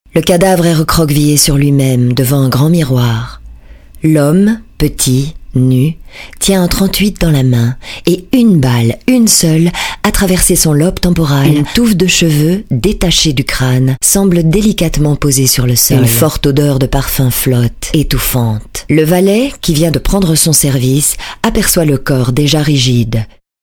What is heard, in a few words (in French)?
commentaire grave